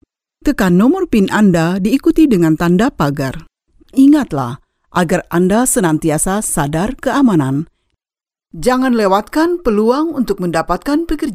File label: Bahasa Indonesian Female